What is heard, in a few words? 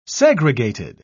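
"segregated", British English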